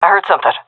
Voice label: heard sound, seal fem; vo; Counter Strike Model Pack; CS:GO